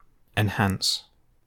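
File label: "enhance", British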